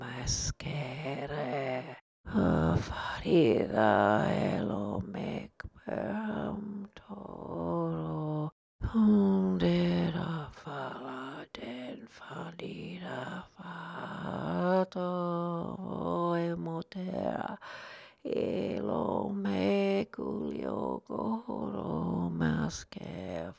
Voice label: murmur loop